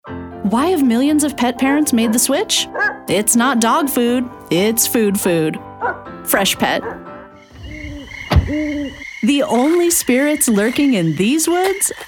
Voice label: Commercial; It's Your Funeral Home & Crematorium